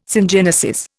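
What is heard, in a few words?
"syngenesis", Indian English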